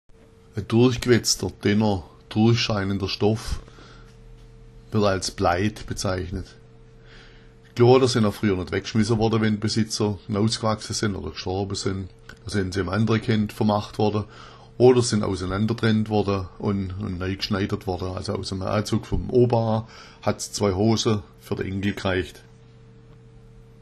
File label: blaid, schwaebisch